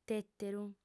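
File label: "tèteru", Sardinian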